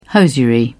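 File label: "hosiery", British English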